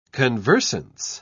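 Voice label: "conversance", British English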